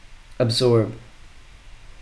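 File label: "absorb", American, male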